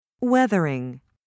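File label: "weathering", British English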